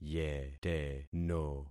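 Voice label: "yeteno", concatenated syllables; Affixing experiment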